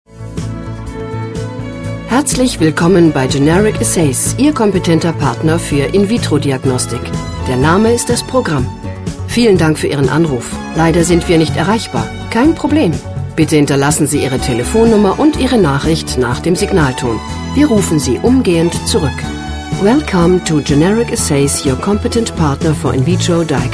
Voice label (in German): anrufbeantworter